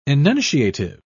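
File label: "enunciative", English, British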